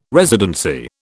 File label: "residency", British